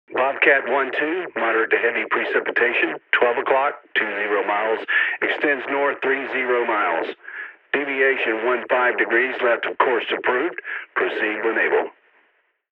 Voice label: Air Traffic Control Tower